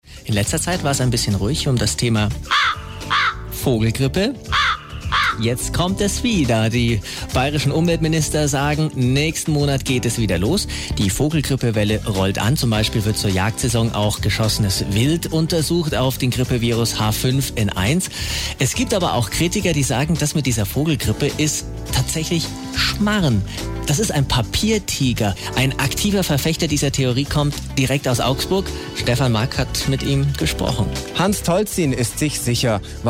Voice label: hit radio; vogelgrippe